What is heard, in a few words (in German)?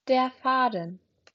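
"Faden", German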